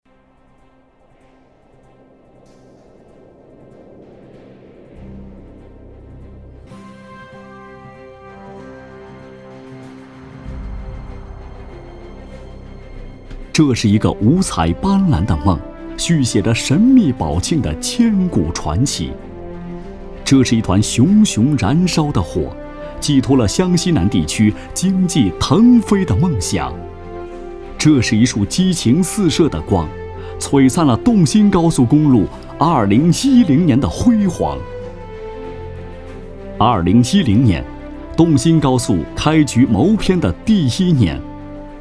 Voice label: 专题片 城市 宝庆 浑厚大气

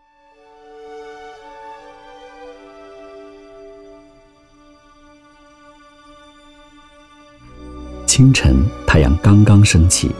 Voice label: 纪录 物流 广州港 自然解说

